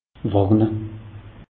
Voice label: "warne", alsace; meteo

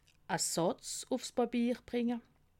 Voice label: "satz", alsace

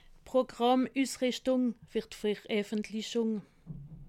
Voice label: "programmusrichtung", alsace

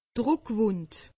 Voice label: "druckwund", alsace; medecine

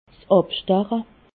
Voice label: "abstache", alsace

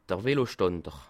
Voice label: "velostander", alsace

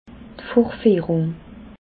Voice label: "vorfiehrung", alsace

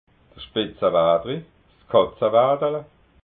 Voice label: "spitzawaderi", alsace

